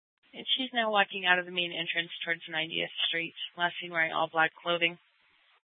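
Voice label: Scottsdale PD North Dispatch; Nth Dispatch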